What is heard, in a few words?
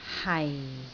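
"hai4", Cantonese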